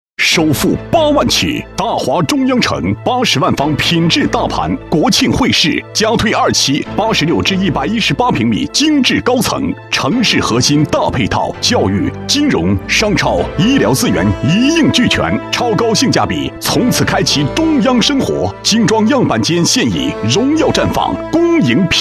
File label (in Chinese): 男 房地产广告 首付八万起; 国语男声配音; 中央生活城